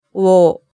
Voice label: "wo", hiragana